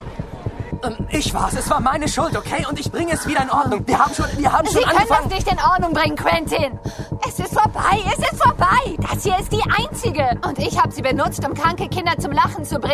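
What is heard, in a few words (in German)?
Schauspiel, Aufgeregt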